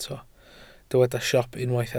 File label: "siop", Welsh